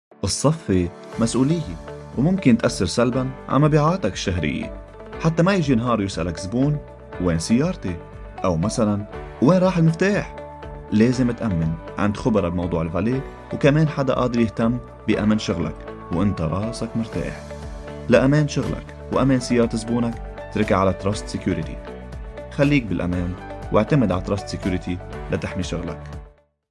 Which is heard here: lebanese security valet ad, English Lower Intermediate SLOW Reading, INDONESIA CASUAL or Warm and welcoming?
lebanese security valet ad